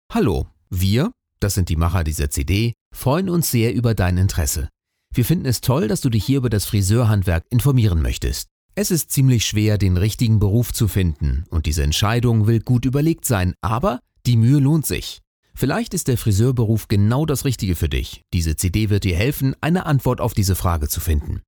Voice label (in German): stimmprobe e-learning